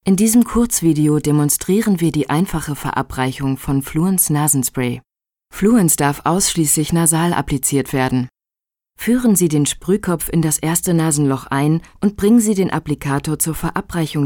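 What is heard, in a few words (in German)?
commentary, Neutral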